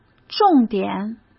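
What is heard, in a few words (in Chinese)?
"重点", Mandarin Chinese; hsk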